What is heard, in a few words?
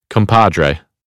"compadre", American English; ɑː - ɒ